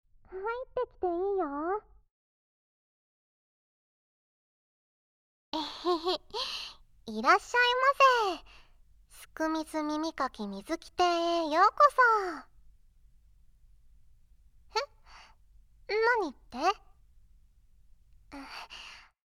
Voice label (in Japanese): 声のみ ノイズ除去なし